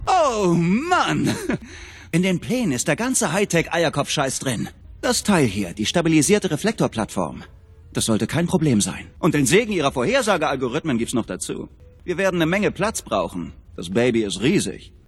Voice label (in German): begeistert; fallout; LOFT VOICES